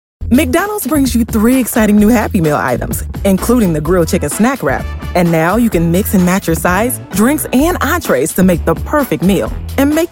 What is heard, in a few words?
VO Commercial